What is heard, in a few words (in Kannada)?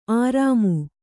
"ಆರಾಮು", Kannada